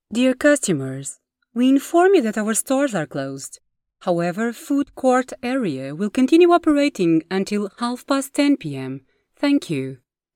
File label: english corporate videos